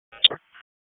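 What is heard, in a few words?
Sheriff's Office Dispatch; WSO DISP 1; wake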